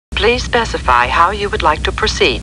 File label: Computer Voice; Star Trek; StarTrekLCAR